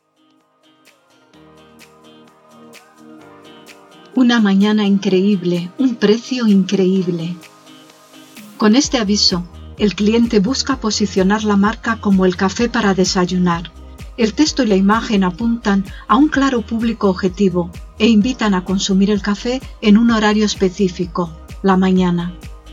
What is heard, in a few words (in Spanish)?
Elearning de marketing